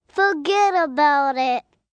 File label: Fuggit About It, 1 child, 5 yrs, Forget about it; Talking Sound Effects; Sound Effects:Voice; VoiceBox